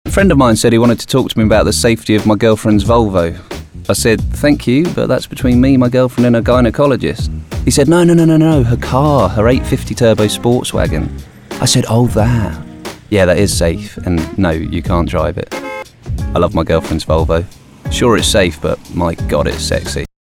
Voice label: Natural Speak